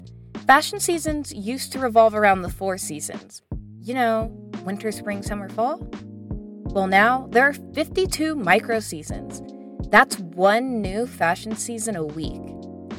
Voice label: podcast excerpt